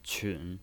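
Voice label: "qun", Mandarin Chinese, third tone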